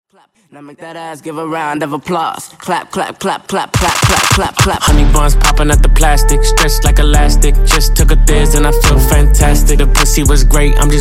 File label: Acapella Intro Outro 106Bpm